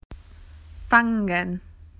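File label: "to catch", Australian English; PictureDictionary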